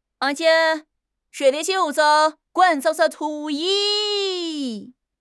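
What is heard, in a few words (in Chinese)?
"磕", Chinese; HSK; HSK6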